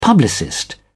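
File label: "publicist", British English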